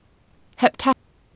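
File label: "hepta", Ancient Greek, female; ἕπτα heptá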